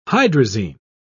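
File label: "hydrazine", British English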